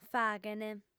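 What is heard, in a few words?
"faghent", Sardinian, female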